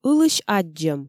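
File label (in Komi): ылысь аддзӧм, Komi, female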